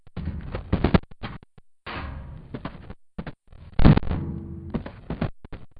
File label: garble